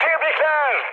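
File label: player killed enemy, mood high, Turkish; War Thunder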